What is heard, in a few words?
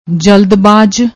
"jaldbaaj", Punjabi